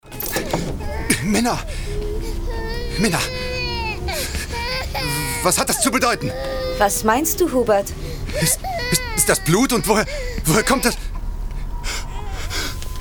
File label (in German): hoerspiel; midnight tales